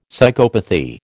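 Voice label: "psychopathy", American English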